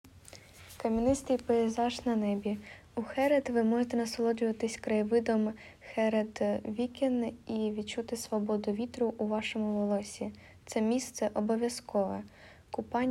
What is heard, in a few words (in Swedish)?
ukrainska; Klipplandskap i Skäret